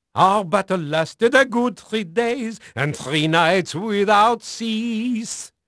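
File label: French male, voice; Pirates Of The Caribbean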